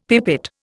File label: "pipette", Indian; Dictionary